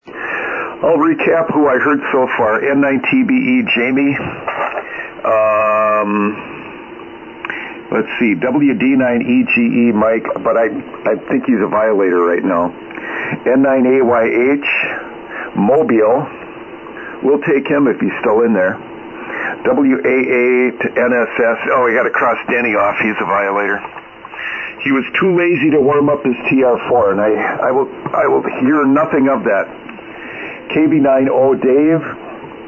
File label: SSB Net Check Ins